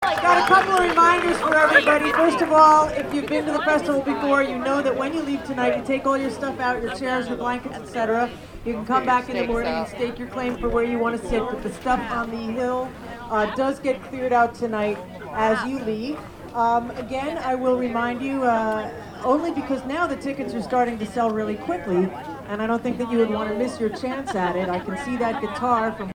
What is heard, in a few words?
festival announcements; Falcon Ridge 2007